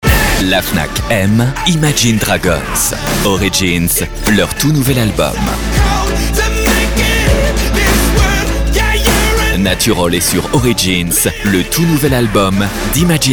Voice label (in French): enthousiaste, voix off disque, homme